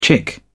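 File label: "chick", British English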